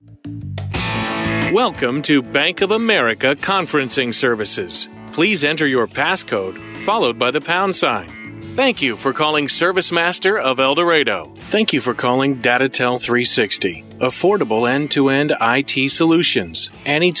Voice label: On Hold, US; Voice-over demos; on hold voices